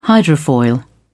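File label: "hydrofoil", British English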